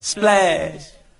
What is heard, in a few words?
splash, voice; ooh ahh